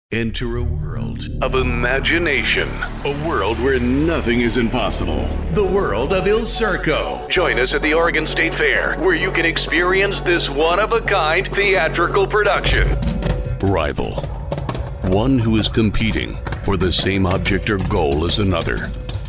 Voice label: Commercial